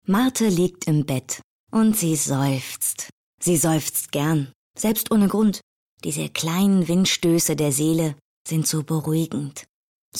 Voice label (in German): erzaehlung